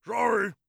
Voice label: sorry, orc voice; Orc Voice Pack